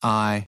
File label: phoneme lime, American; American IPA phonic